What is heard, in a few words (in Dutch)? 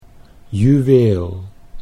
"juweel", Dutch